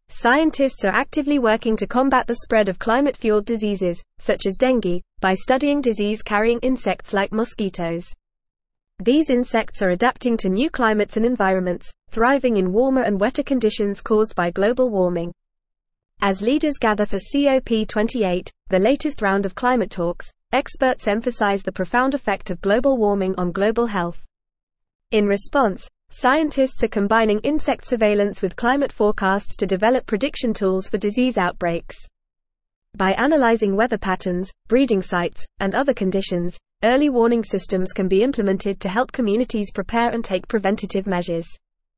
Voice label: English Newsroom Advanced FAST Reading; Using Insect Surveillance and Climate Forecasts to Fight

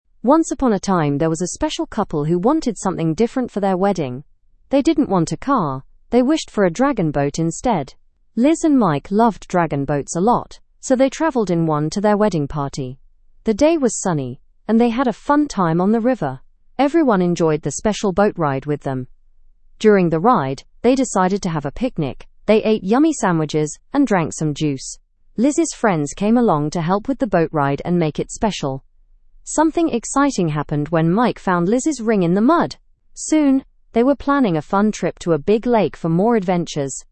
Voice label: learn english through news beginner fast; wedding couple chooses dragon boat

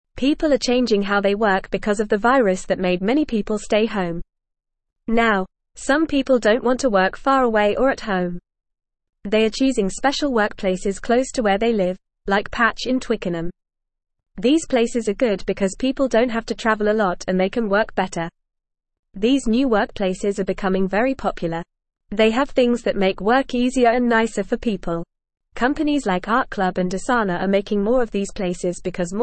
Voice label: English Lower Intermediate FAST Reading; People like working close to home in co working spa

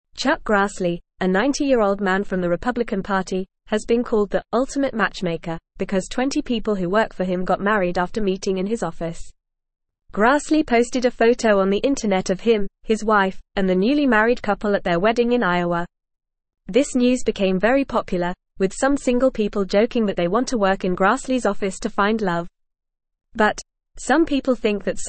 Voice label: English Newsroom Lower Intermediate FAST Reading; Chuck Grassley The Love Helper in Congress

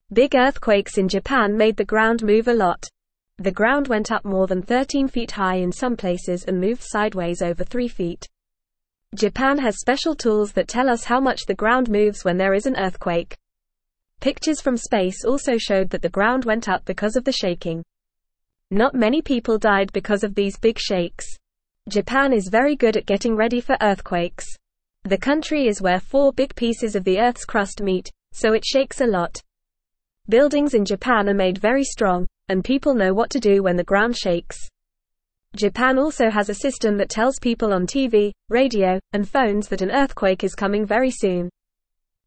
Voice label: English Lower Intermediate FAST Reading

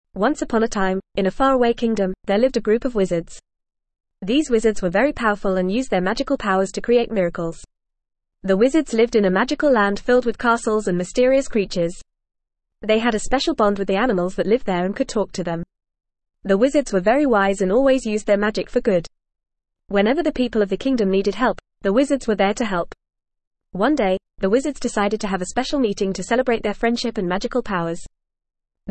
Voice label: ESL Short Stories for Kids FAST reading; The Land of Wizards

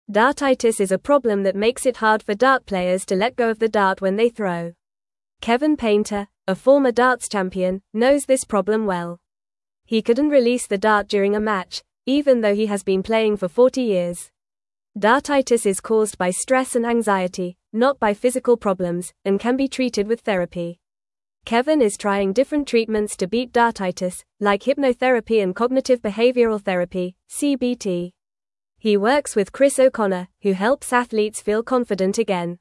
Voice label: English Lower Intermediate FAST Reading; English Newsroom; Kevins Dart Problem and How He is Getting Help

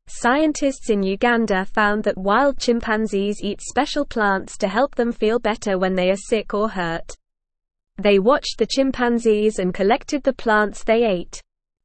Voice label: English NORMAL Reading

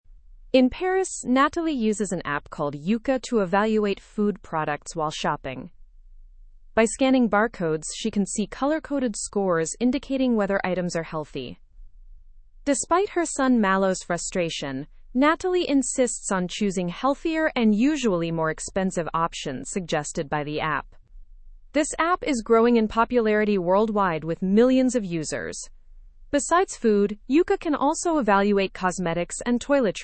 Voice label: english through news upper intermediate; digital applications encourage healthier eating habits

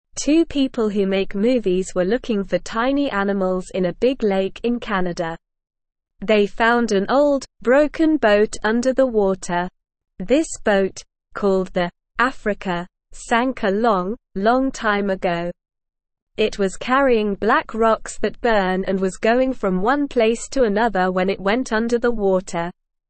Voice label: English Newsroom Beginner SLOW Reading; Surprise Find Old Boat Underwater Helps Us Learn

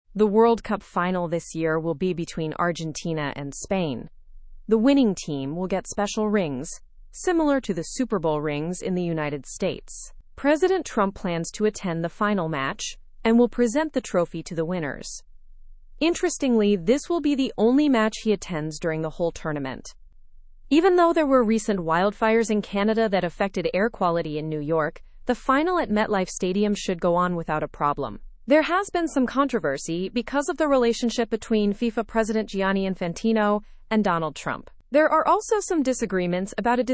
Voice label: english through news lower intermediate fast; world cup rings and trump s attendance

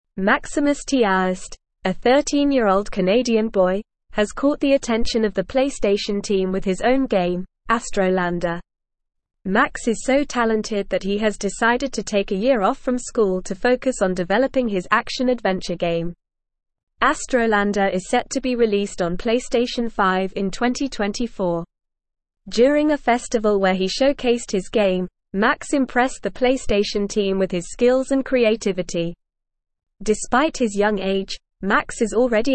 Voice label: English Newsroom Advanced NORMAL Reading; 13 Year Old Canadian Boy Develops PlayStation 5 Game

